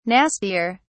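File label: "nastier", British English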